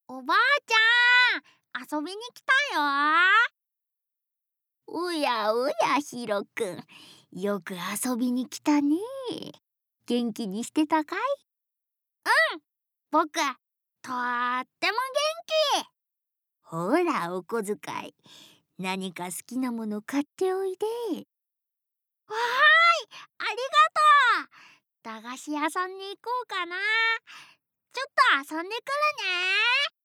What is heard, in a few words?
Young boy grandma